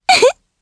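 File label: Vox Happy, Japanese; Aisha; kingsraid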